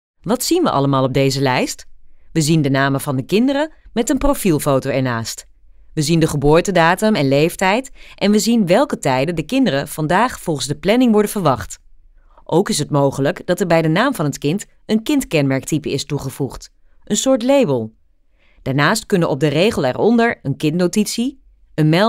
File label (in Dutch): stemdemo Zonder Muziek